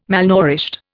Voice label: "malnourished", Indian

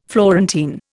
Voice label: "Florentine", Indian

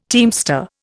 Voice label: "teamster", Indian

